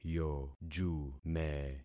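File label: "yojume", concatenated syllables; Affixing experiment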